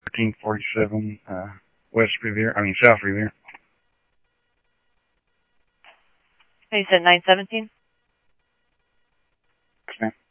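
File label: police radio scanner traffic, Mesa Simulcast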